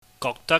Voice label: "gokdak", Cantonese